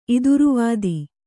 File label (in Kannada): "ಇದುರುವಾದಿ", Kannada